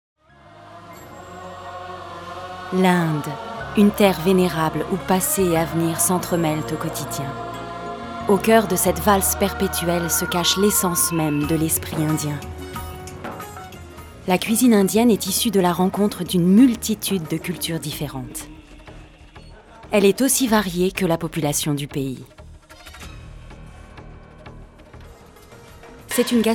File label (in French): docu culinary asia, voix